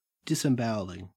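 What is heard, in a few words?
"disembowelling", Australian English; pronunciations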